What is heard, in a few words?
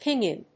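"Pinyin", English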